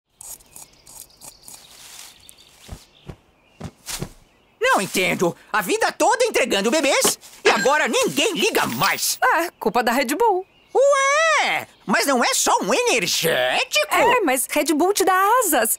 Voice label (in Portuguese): Voz Cegonha e Assinatura Locutora; Red Bull